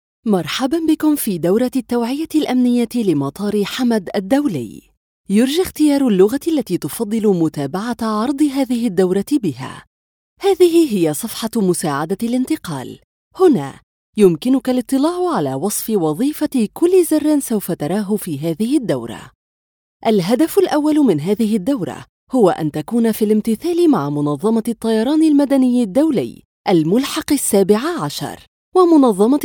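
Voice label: Arabic, female, e-learning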